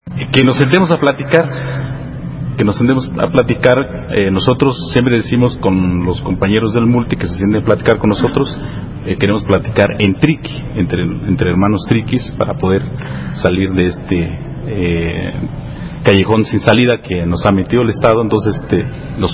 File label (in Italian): dialogo MULTI